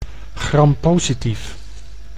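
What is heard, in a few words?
"grampositief", Dutch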